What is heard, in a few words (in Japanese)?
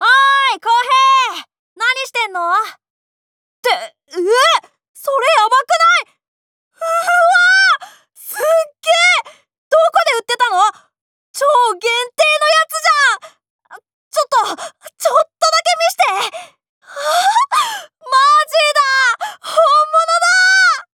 男の子